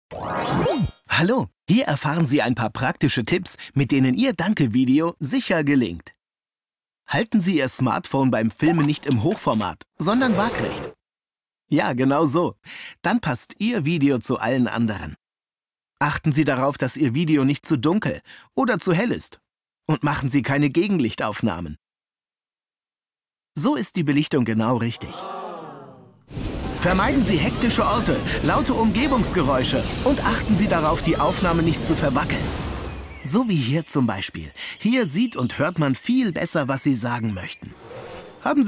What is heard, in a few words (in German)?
Imagefilm; bad liebenwerda